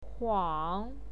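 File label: "huang", Mandarin Chinese pinyin, third tone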